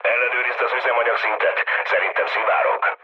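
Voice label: Hungarian tank damaged mood low, speech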